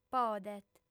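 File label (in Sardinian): "podet", female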